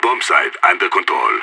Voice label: bombsite clear, radio; SAS

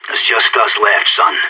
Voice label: all enemies dead, radio; SAS

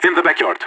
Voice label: backyard, radio; LEET

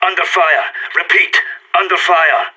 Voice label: pinned down, radio; SAS

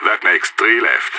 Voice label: three enemies left, radio; SAS

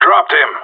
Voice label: enemy down, radio; GSG9

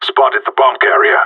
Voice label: bomber spotted, radio; GSG9

